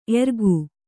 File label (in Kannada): "ಎರಗು", Kannada